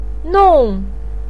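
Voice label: "nong4", Mandarin pinyin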